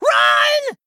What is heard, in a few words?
Male Goblin Attack